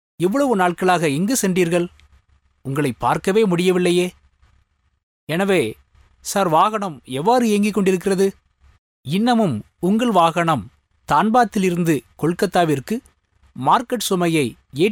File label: tamil voiceover locutor tamil; locutor indio de dialecto Tamil